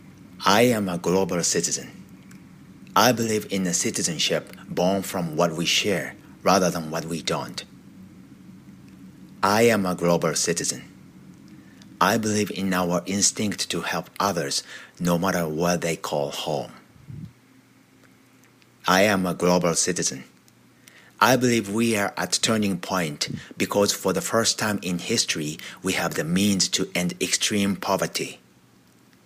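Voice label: Japanese English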